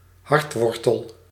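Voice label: "hartwortel", Dutch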